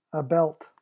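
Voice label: "a belt", English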